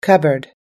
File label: "cupboard", us-english